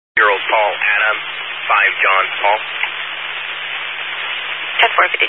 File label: Highway Patrol, Phoenix Metro West Area, scanner; DPS